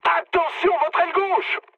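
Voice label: left wing, mood medium, French; War Thunder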